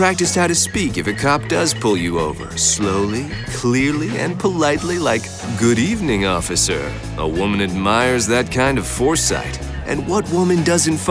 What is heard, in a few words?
Buzzed Driving Attention Men PSA